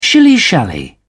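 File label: "shilly shally", British English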